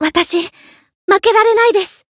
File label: encount, jp; saki